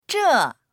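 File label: "zhe4", Mandarin Chinese; 这; この、これ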